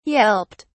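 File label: "yelped", British English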